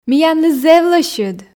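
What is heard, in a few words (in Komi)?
миянлы зэв лӧсьыд, Komi, female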